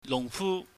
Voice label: "nuhngfu", Cantonese

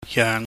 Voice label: "yeung", Chinese

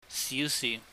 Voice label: "siusih", Cantonese

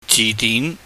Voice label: "jihdin", Cantonese

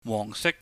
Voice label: "wohng sik", Cantonese

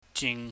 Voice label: "jing", chinese

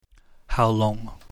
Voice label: "hauluhng", Cantonese

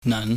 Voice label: "nan", pingyam

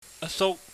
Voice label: "asuk", Cantonese

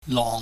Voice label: "long", Chinese

